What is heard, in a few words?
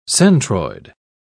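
"centroid", British English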